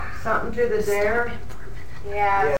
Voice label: Female Voice; Athens IL Bitterroot